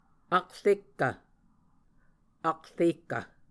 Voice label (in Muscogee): "akrekkv", Muscogee; Mvskoke Words with Repeated Consonants; Onvkv Ohhvyayices; A Dictionary of Creek/Muskogee; SIL International